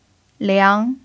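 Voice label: "liang2", Chinese; pinyin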